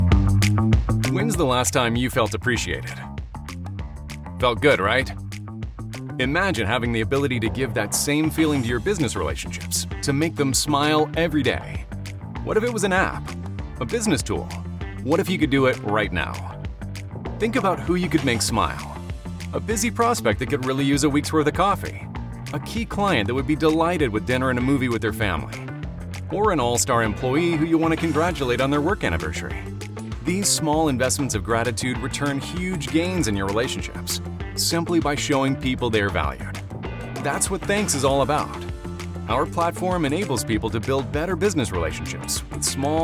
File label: english american explainer videos